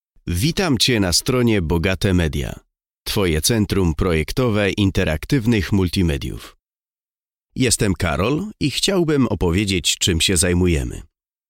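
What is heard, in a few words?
Polish, male, corporate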